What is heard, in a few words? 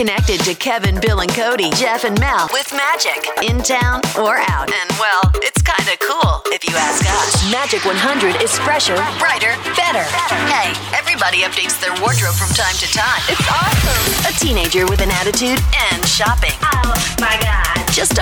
HOT A C Radio